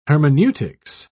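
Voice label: "hermeneutics", British English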